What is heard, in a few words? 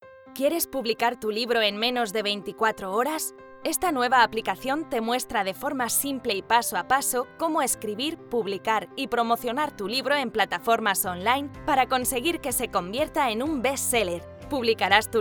explainer; voix générées par l’intelligence artificielle